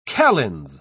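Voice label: "calends", English, British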